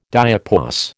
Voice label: "diapause", American

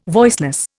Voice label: "voiceless", Indian

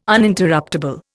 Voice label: "Uninterruptable", Indian

